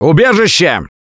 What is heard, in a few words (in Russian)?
Safe Spot Ahead, left4dead2 russian, Survivor Voice; Gambler